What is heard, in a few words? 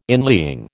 "inlying", American